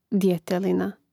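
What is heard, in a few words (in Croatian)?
"djetelina", Hrvatski; mreznik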